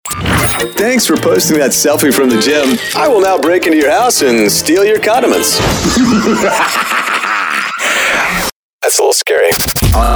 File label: radio chr, radio imaging